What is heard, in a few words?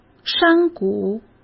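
"山谷", Mandarin Chinese; hsk; chinese-flashcards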